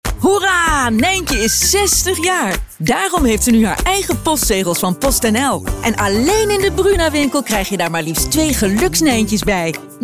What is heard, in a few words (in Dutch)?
Vrolijk